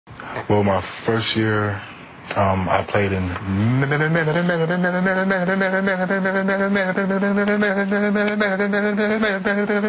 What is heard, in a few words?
stutter washing machine